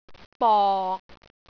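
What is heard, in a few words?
"bok6", Cantonese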